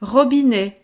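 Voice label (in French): "robinet", French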